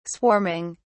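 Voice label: "swarming", British English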